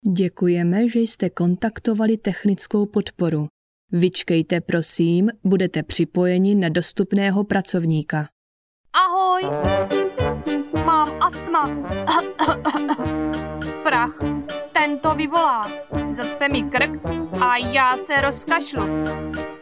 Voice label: Czech

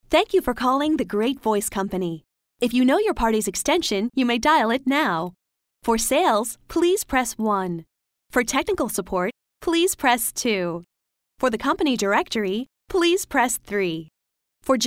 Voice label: US English

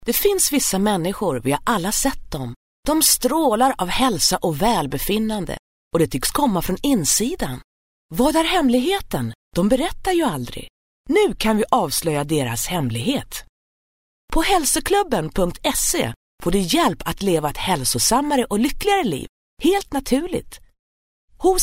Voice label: Swedish

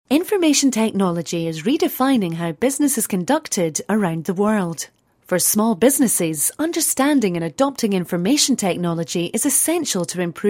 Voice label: Scot English

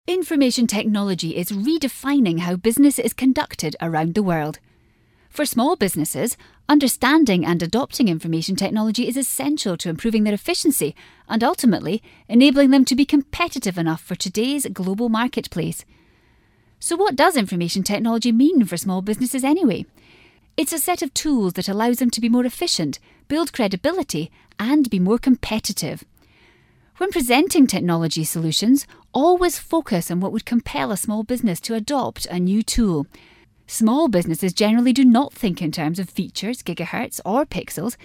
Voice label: Scot English

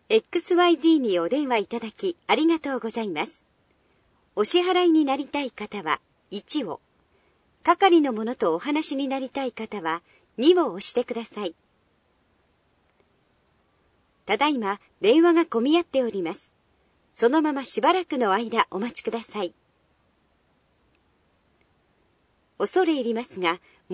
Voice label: Japanese

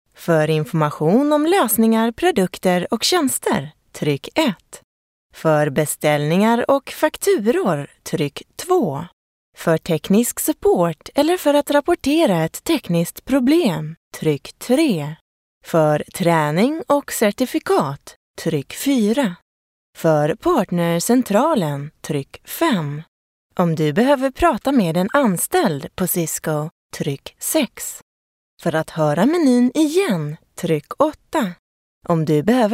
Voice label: Swedish

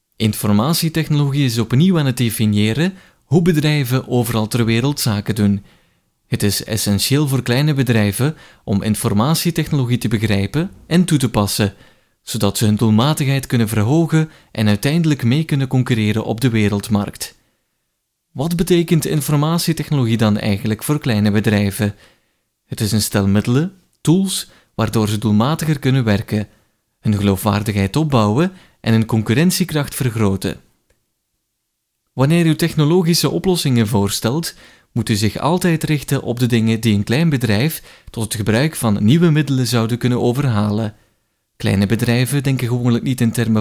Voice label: Flemish

